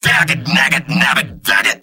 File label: engineer negative vocalization; tf2; mvm